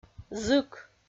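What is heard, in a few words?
"зык", Komi, female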